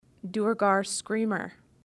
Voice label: duergar screamer; bestiary; 5etools